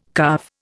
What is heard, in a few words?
"calve", Indian